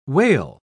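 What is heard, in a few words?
"wale", British English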